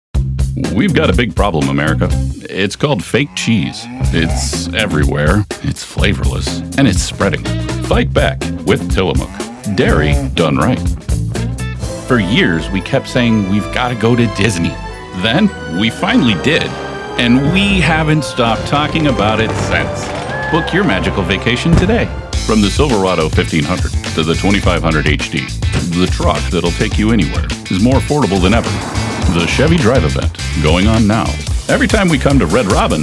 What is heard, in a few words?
Commercial